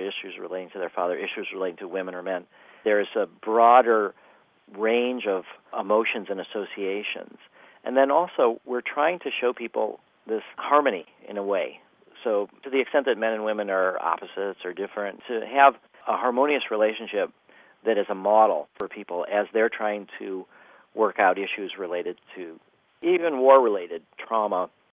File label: male female